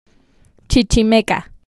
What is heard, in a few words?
Chichimeca Pronunciation